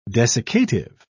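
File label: "desiccative", British English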